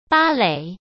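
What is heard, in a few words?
"芭蕾", Mandarin Chinese; hsk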